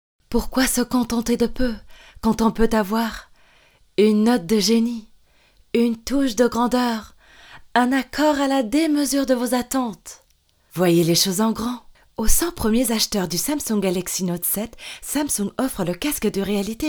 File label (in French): femme Werbung